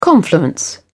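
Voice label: "confluence", British English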